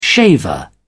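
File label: "shaver", British English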